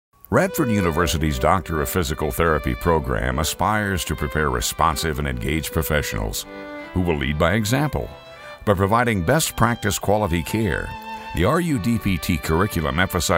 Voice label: radio ad